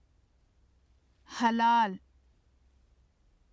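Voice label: "halal", Urdu; حلال; [həlɑːl] (halāl); ハラールの（清浄な）（形）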